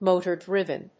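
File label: "motor-driven", English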